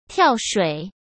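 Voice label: "跳水", Mandarin Chinese; hsk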